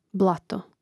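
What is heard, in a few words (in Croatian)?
"blato", Hrvatski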